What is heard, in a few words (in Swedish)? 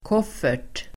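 "koffert", Swedish; koffert substantiv, trunk; Uttal: [k'åf:er t]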